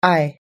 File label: "eye", us-english